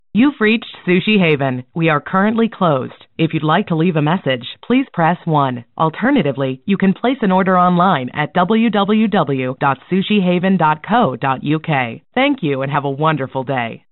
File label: Young bright sushi food millennial bright energy welcome message